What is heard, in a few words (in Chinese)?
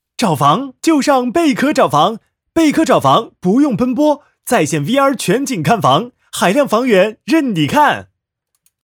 欢快 口播广告; 贝壳